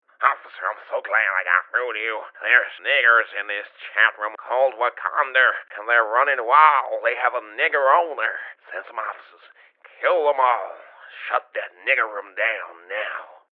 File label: old man megaphone